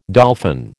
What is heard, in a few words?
"dolphin", American